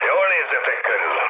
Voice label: hun chat, speech hun; War Thunder

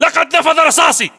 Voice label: inform reloading generic, english battlechatter

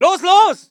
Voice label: voice ger infantry

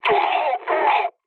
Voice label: damaged pilot mood low; War Thunder

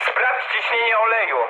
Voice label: damaged oil mood high, speech pol; War Thunder

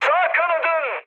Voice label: right wing, mood high, speech tur; War Thunder

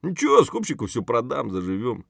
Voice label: mutter; Escape from Tarkov

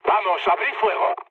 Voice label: attack position, mood low, speech; War Thunder

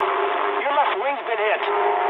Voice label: eng left wing mood med, speech eng; War Thunder

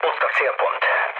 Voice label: near waypoint, mood low, speech hun; War Thunder

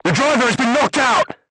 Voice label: voice message driver contused, vietnamese

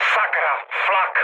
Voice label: damaged aa, speech cze, mood low; War Thunder

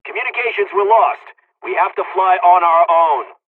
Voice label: dialogs polish; us midway; War Thunder

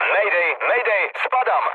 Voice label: aircraft destroyed mood low, speech pol

